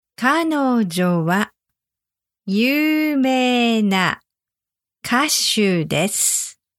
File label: singer slow speed, Japanese